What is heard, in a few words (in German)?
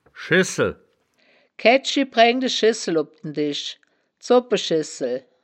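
"schessel", wittlicher woerterbuch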